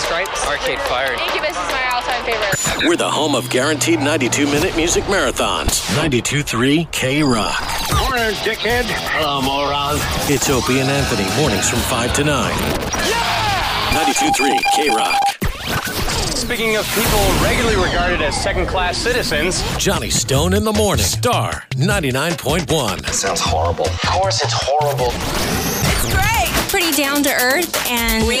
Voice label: Men Radio Imaging